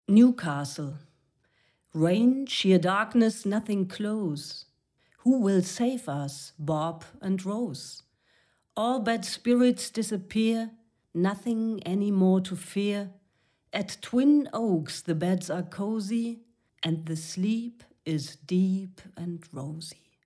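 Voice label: newcastle English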